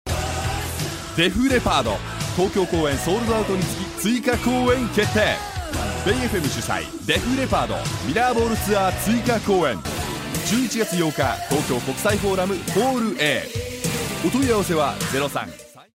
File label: male spot en